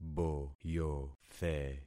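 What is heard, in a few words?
"boyothe", concatenated syllables; Affixing experiment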